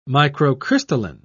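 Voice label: "microcrystalline", British English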